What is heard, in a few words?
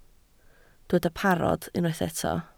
"parod", Welsh